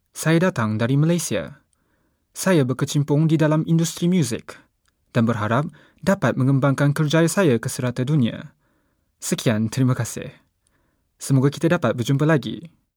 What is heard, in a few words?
Male Malay; VO Reel